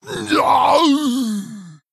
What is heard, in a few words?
Male Troll Death